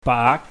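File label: "baak", Cantonese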